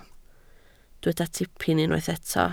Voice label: "tipyn", Welsh